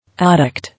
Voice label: "Addict", English

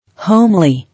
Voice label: "Homely", English

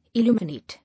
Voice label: "Illuminate", English

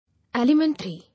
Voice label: "Alimentary", English

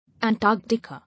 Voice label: "Antarctica", English

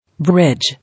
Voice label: "Bridge", English

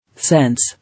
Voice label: "Sense", English

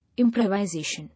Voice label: "Improvisation", English

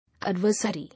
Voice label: "Adversary", English

